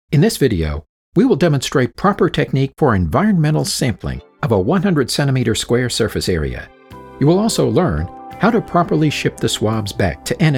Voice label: Elearning Medical